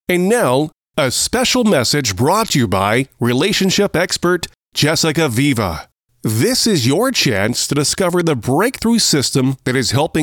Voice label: Product videos, English, North American, Middle age; Male